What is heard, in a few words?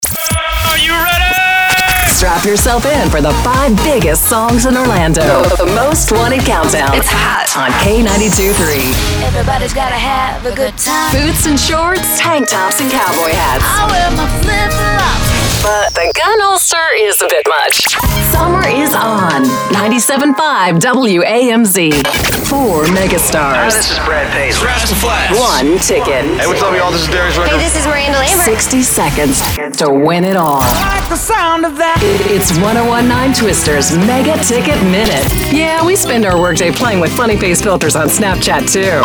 country imaging